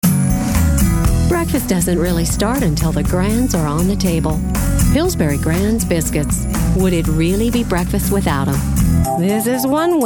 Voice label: commercial